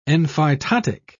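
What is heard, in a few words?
"enphytotic", British English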